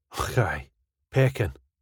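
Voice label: Och aye peckin, Scottish English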